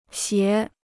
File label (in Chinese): "斜", Chinese